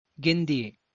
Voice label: "Gendiye", Tulu; Win